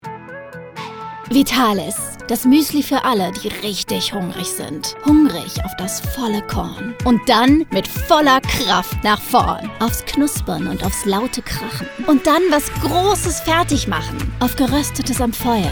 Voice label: DE voiceover; Vitalis